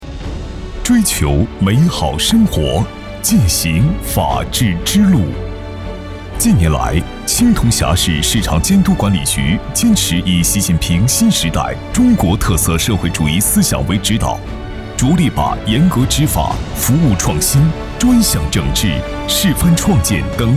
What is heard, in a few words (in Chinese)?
男,党政专题; 青铜峡市